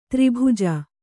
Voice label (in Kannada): "ತ್ರಿಭುಜ", Kannada